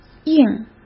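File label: "映" Mandarin Chinese; chinese flashcards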